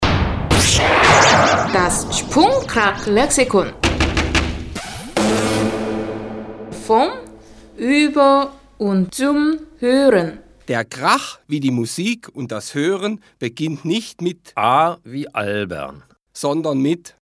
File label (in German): Ansage